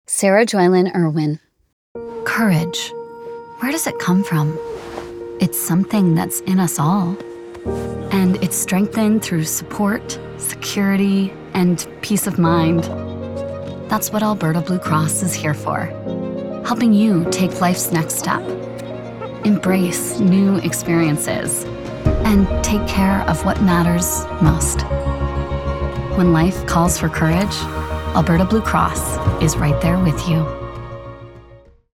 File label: Commercial